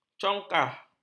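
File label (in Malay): "congkah", Malay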